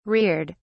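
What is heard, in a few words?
"reared", British English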